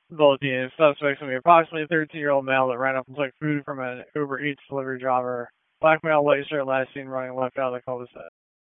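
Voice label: Police District Dispatch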